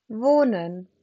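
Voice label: "wohnen", German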